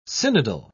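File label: "synodal", British English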